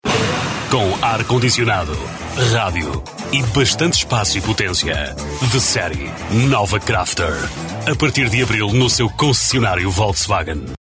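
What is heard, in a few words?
Portuguese commercial; portugisisk-portugal; mann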